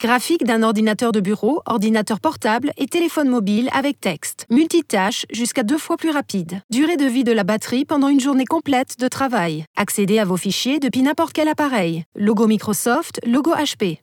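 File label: French, e-learning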